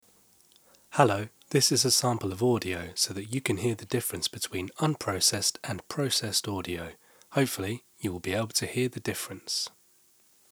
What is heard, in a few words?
Podcast Editing Service Unprocessed Audio Example